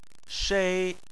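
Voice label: "shei" tone 1, Chinese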